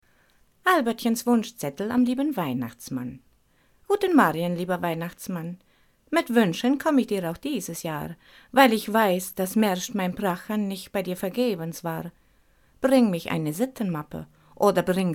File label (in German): ostpreussisch